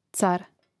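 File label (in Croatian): "car", Croatian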